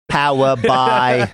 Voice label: australian accent; power bye